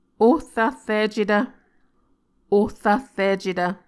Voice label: "ohrahricetv", Muscogee